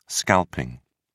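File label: "scalping", British English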